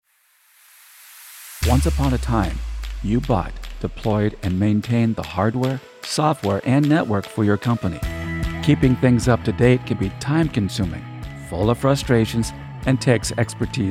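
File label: Voice Over Cloud Security Office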